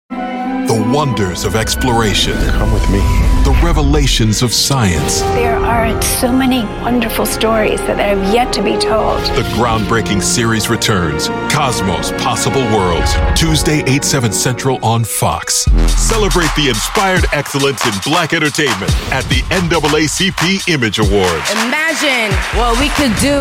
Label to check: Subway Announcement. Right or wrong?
Wrong